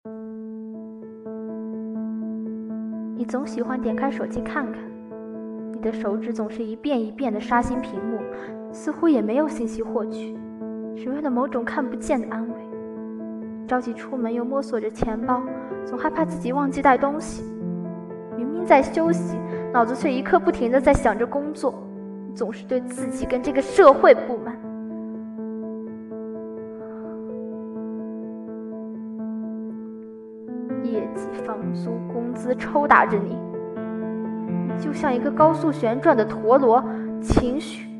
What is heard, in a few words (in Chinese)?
独白; 你好疯子